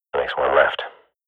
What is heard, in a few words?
radio bot kill count, swat; Counter Strike Model Pack; CS:GO